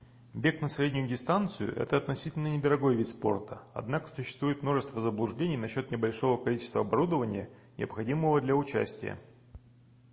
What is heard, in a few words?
russian audio